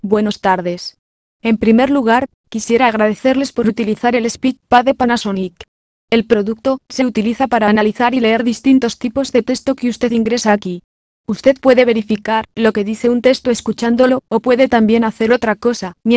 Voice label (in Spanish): Spanish